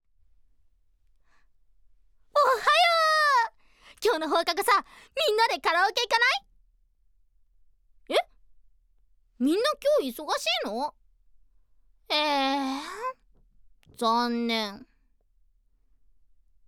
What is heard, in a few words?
voicesample Genki Musume